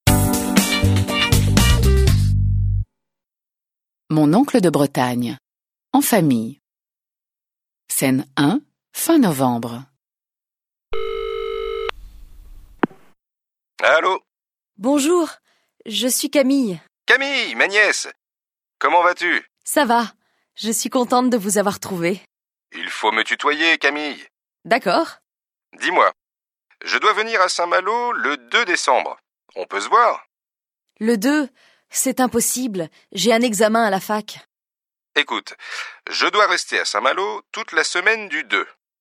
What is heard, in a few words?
French